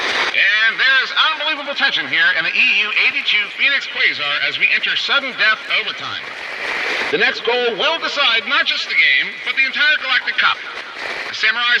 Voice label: Transmission Echoes